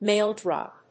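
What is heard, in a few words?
"maildrop", English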